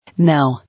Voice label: "Now", English